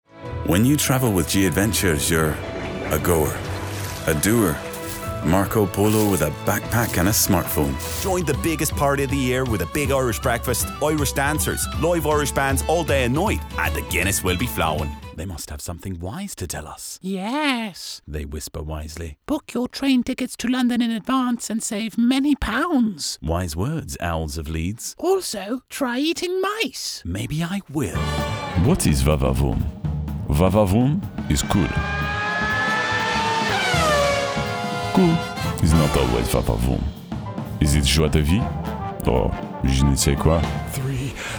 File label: Character